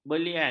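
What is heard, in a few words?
"belian", Malay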